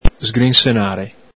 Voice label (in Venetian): "sgrinsenare", Veneto